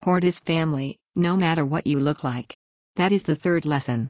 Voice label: Female Orc

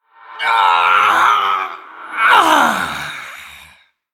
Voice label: Male Human Death

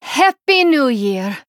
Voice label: Female Draenei HAPPY NEW YEAR

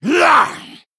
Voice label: Male Troll Attack

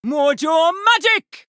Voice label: Female Troll Attack

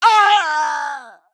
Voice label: Male Night Elf Death

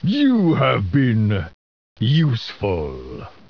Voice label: Male Orc Thanks

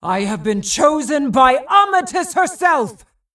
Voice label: female vrykul, Play

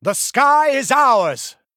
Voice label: Male Blood Elf Attack

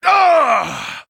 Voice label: Male Blood Elf Death

